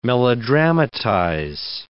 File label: "melodramatize", English